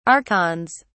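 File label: "archons", English, British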